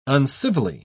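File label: "uncivilly", British English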